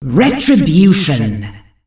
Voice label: fem Retribution; Monster Hunt

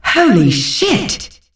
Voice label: fem holy; Monster Hunt

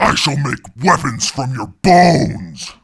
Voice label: Sniper Taunt; Universal Soldiers

